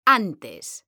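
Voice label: "antes", Spanish, female; mekanta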